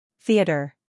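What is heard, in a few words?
"scandal", American; theater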